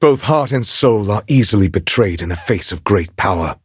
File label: level up, en; cookie run